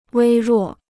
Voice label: "微弱", Chinese

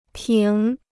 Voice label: "平", Chinese

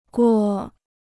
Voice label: "锅", Chinese

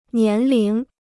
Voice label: "年龄", Chinese

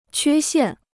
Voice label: "缺陷", Chinese

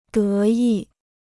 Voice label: "得意", Chinese

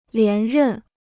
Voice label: "连任", Chinese

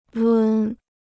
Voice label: "抡", Chinese

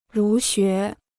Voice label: "儒学", Chinese

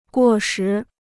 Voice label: "过时", Chinese

